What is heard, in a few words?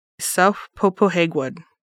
"February", Lushootseed